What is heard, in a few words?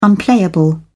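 "unplayable", British English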